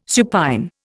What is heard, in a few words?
"supine", Indian